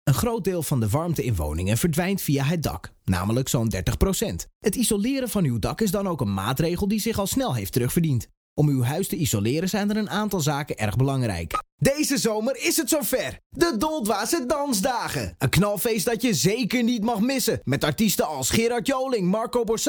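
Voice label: DUT M, Dutch male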